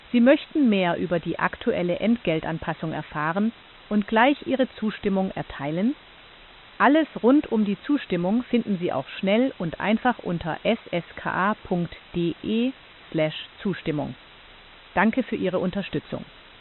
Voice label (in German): Ansage Preisaenderungen